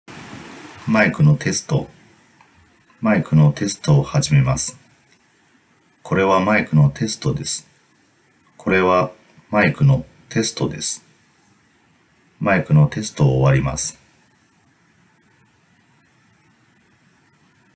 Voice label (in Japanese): サンプル音声1内蔵マイク